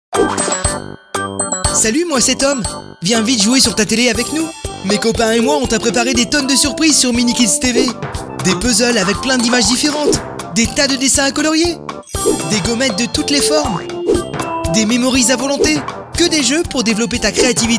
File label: french animation